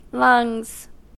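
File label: "lungs", US English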